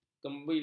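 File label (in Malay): "kembili", Malay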